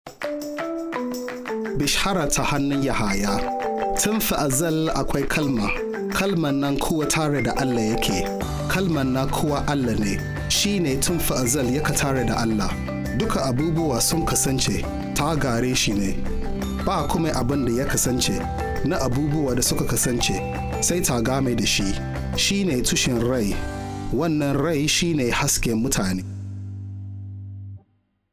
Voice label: African Mid